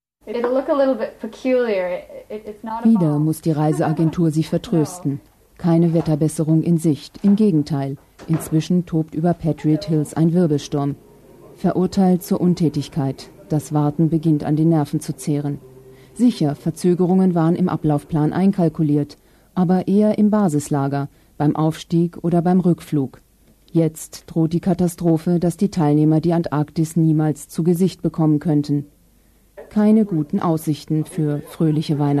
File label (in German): doku exped politik voice over